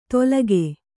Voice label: "ತೊಲಗೆ", Kannada

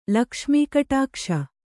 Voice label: "ಲಕ್ಷ್ಮೀಕಟಾಕ್ಷ", kannada

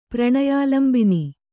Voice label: "ಪ್ರಣಯಾಲಂಬಿನಿ", Kannada

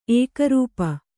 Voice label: "ಏಕರೂಪಿ", Kannada

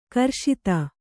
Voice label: "ಗ್ರಸ್ತ", Kannada

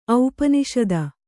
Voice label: "ಔಪನಿಷದ", Kannada

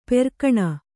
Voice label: "ಪೆರ್ಕಣ", Kannada

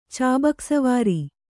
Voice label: "ಚಾಬೂಕಸ್ವಾರ", Kannada

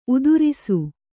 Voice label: "ಉತ್ತರಸ", kannada

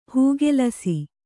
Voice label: "ಹೂಗೆಲಸಿ", kannada

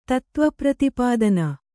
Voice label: "ತತ್ತ್ವಪ್ರತಿಪಾದನ", Kannada

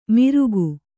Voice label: "ಮಿರುಗು", Kannada

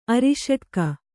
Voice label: "ಅರಿಷಟ್ಕ", Kannada

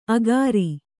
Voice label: "ಅಖಾರಿ", Kannada

